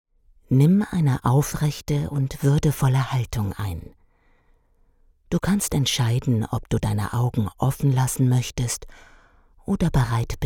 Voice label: meditation